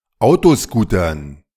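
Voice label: "Autoscootern", German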